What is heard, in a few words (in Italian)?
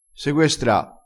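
"seguestra", dialettale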